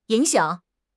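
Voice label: "ying3 xiang3", Mandarin Chinese; HSK3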